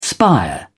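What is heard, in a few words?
"spire", British English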